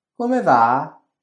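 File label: "come va", Italian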